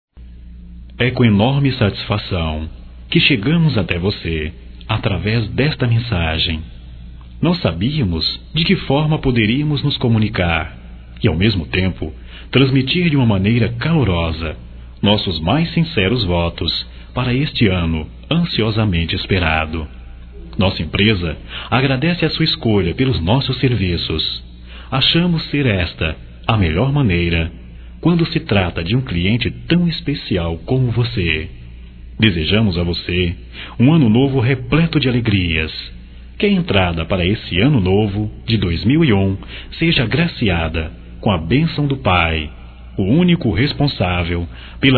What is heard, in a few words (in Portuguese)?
Natal geral, masc; Telemensagens 2017; Natal & Ano Novo